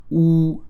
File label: [u], French